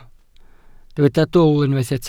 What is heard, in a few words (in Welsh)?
"dwl", Welsh